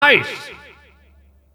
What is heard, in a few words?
impressive, announcer; tf2cciv; open fortress